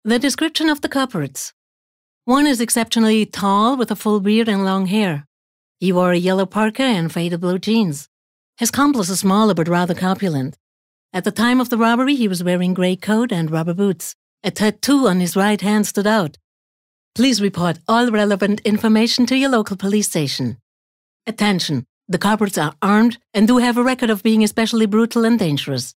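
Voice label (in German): US English, Kommentar Neutral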